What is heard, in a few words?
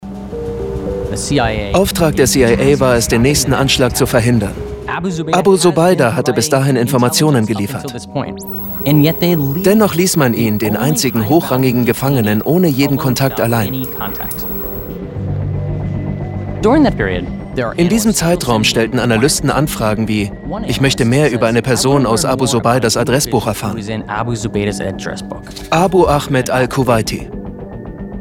voice over; sky